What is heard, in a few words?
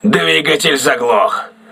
engine damaged, crew ships russian